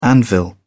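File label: "anvil", British English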